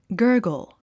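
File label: "gurgle", English; 発音 gə’ːrgl ガァゴォ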